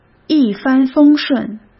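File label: "一帆风顺", Mandarin Chinese; hsk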